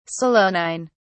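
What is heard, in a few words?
"solanine", English, British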